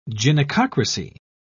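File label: "gynecocracy", British English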